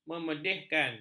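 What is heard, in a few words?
"memedihkan", Malay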